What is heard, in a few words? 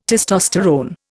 "testosterone", Indian